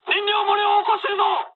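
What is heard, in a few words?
Japanese tank damaged mood high; War Thunder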